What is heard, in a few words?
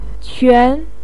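"quan", Mandarin pinyin, tone 2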